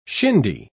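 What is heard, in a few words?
"shindy", British English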